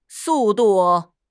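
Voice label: "su4 du4", Chinese; 汉语口语新时代-初级下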